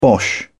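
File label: "bosh", British English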